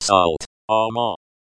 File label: "a³ma", Cherokee; Salt; ᎠᎹ